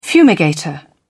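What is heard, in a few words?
"fumigator", British English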